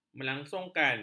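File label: "melangsungkan", Malay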